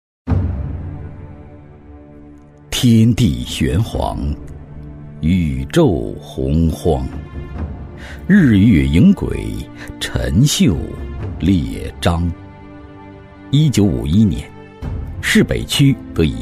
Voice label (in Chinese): 男 纪录片 历史醇厚感, 高端内涵 自然诉说; 档案馆; 男128-纪录片《档案馆》-历史醇厚感